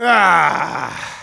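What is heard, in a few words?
negative vocalization, German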